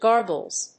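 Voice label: "gargles", English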